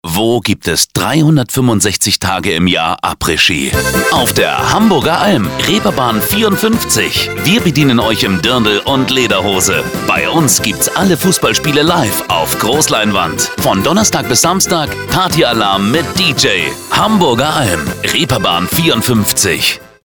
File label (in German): Radio Spot